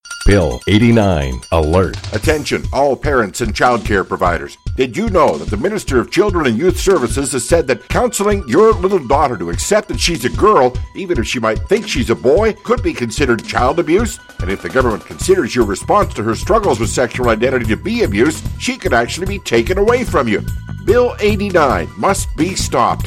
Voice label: radio ad; bill-89